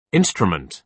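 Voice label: "instrument", British English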